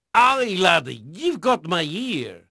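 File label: Portuguese male, voice; Pirates Of The Caribbean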